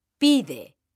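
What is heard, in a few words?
"pide", Spanish, female; mekanta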